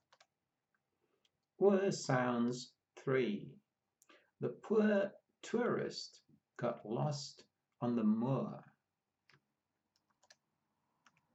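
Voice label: Our sounds, pronunciation of australian english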